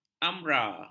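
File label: "amra", Malay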